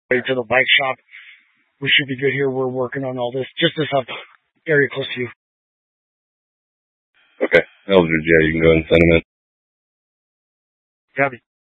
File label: Incident Tac 3, scanner